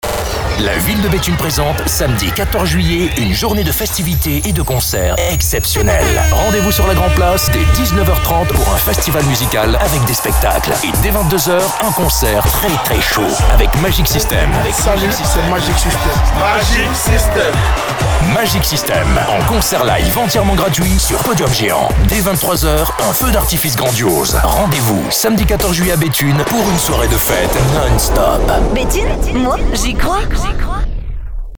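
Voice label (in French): spot, spots radio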